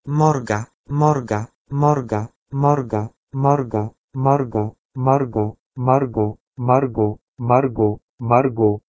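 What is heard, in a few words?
Proto-Indo-European morgeh2 to Latin margo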